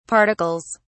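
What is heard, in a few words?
"particles", British English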